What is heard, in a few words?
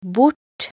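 "bort", Norwegian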